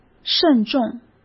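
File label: "慎重", Mandarin Chinese; hsk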